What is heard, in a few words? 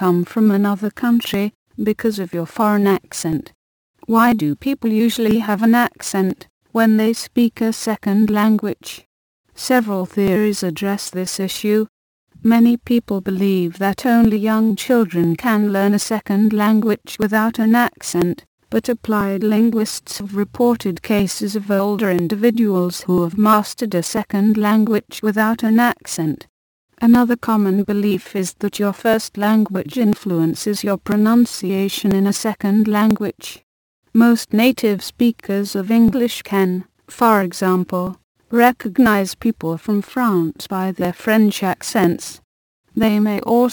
Diagnostic Passage (BrE)